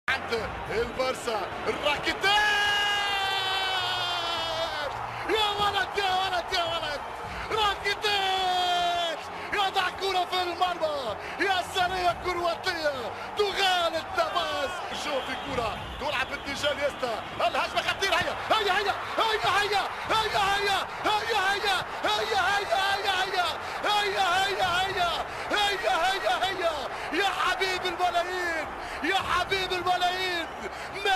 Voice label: Arabic football reporter

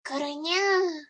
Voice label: anime cat girl

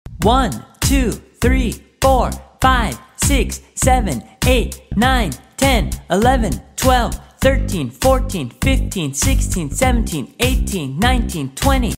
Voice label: English numbers; اعداد انگلیسی

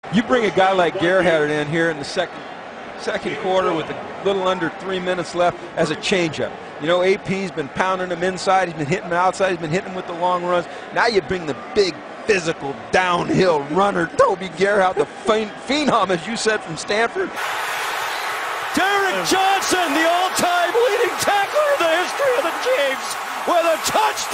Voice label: English football reporter

